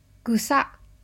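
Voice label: "gusa", Japanese; グサッ – gusa; A loud stabbing sound.; manga onomatopoeia sound effects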